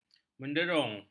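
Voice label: "menderung", Malay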